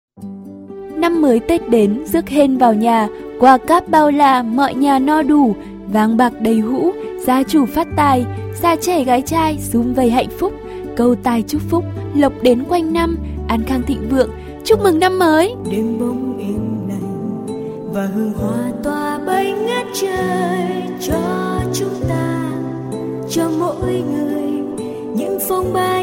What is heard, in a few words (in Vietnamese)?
loi chuc nam moi giong nu